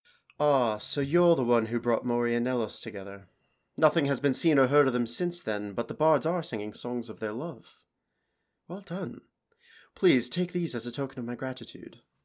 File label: breton male regal